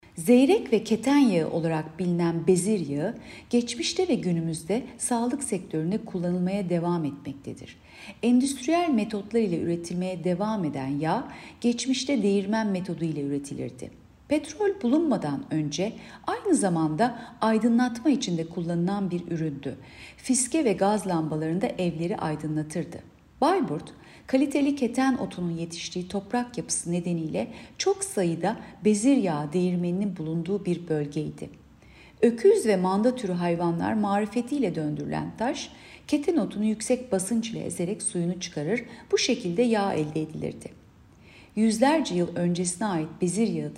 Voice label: turkce